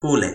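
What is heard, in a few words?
"kule", Toki Pona; linku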